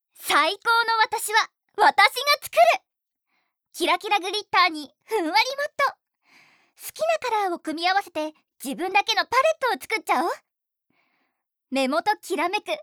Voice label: Cosmetics CM, ナレーション①ティーン向け化粧品CM